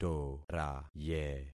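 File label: "toraye", concatenated syllables; Affixing experiment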